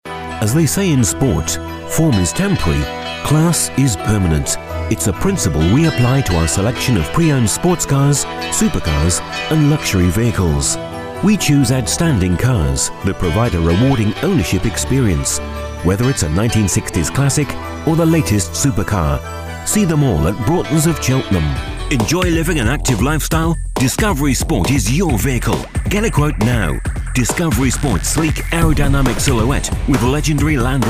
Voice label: Car Spot

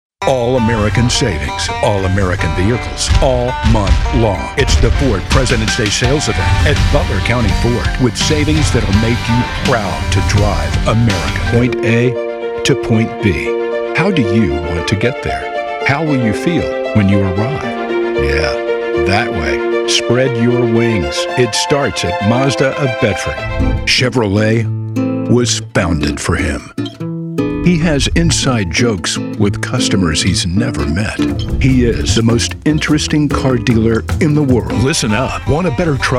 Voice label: Car Spots

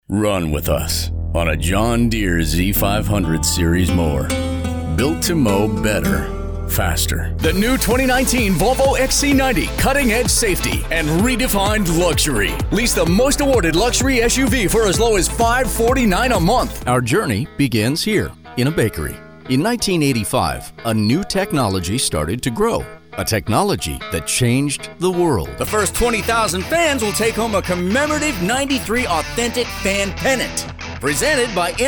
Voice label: Commercials

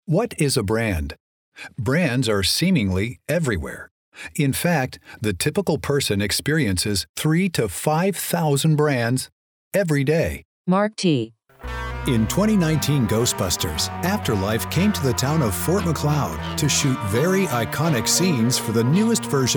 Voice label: Narration

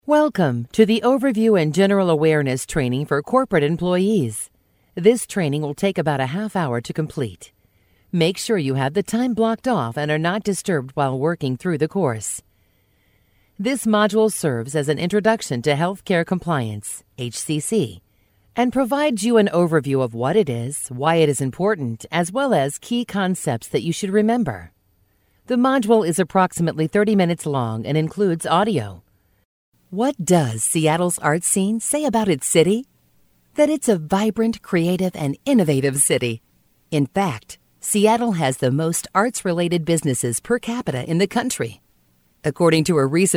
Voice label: Narration

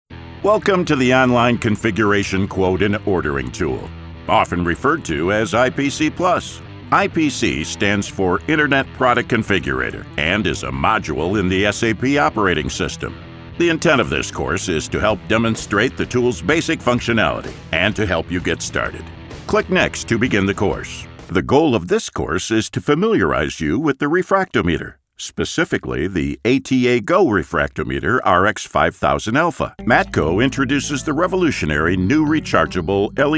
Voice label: E Learning

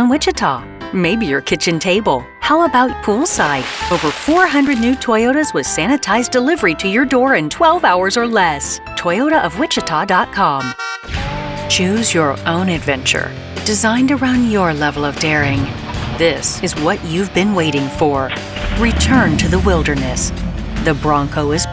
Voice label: Car Spot

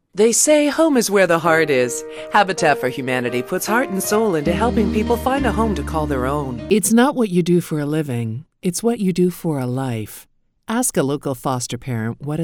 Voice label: Female Commercials

